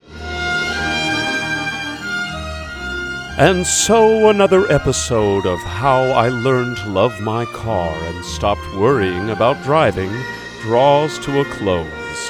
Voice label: radio drama